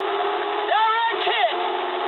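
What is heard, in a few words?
English bomb success mood med; War Thunder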